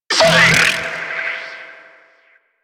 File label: Ranged Pain, Barks, Battle; Warframe